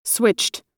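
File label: "switched", American English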